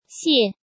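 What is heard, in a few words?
"Xiè", Chinese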